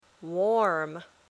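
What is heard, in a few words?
"warm", English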